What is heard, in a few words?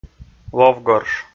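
"ловгорш", Komi, male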